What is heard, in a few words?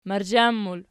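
"marzhan" plural, Archi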